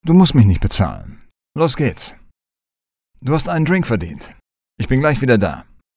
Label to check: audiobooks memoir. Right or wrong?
Wrong